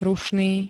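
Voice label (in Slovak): "rušný", Slovak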